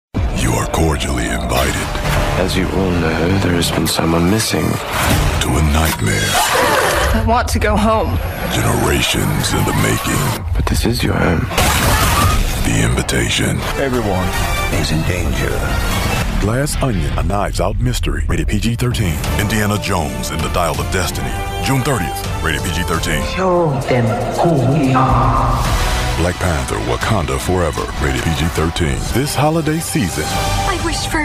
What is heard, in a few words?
english american movie trailers